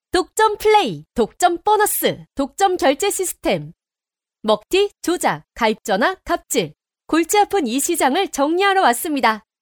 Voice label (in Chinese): 外籍韩语女大气宣传; 韩国; 外语